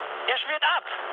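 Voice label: German aircraft destroyed mood med, speech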